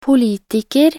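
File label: "politiker", Norwegian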